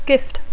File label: "gift", English